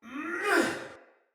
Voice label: Attack Heavy Soldier Male; Battle; Barks; Dialog; Sinecure Soldier Male A